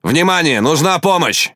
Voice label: Ru Speech Sos; Quick Commands

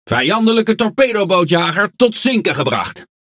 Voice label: NL Speech Destroy Enemy Destroyer; World Of Warships

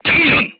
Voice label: voice message reloading, korean, crew tanks

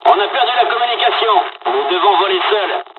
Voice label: dialog, dialogs french; War Thunder; midway

